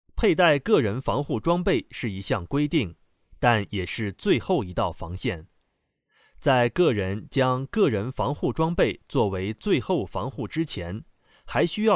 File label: male Chinese smooth, commercial; 30-40